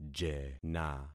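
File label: "jena", concatenated syllables; Affixing experiment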